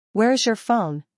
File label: "Where is your phone", English